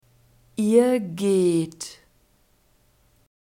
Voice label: "ihr geht", German

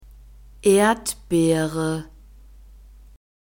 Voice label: "erdbeere", German; fruits

